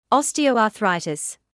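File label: "osteoarthritis", British English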